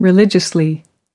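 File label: "religiously", US English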